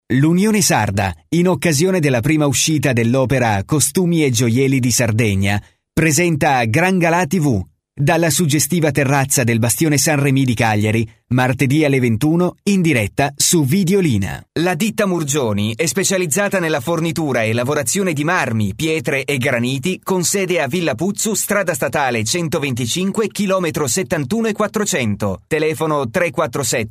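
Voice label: Italian male voice over, sales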